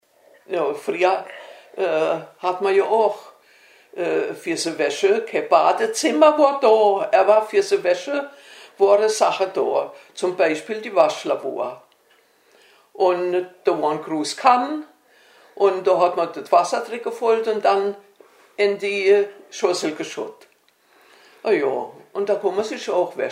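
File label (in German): waschlavor, O-Ton Hunsrück; Mastershausen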